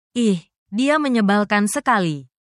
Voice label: indonesia, indonesian negative phrases